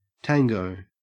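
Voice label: "tango", Australian English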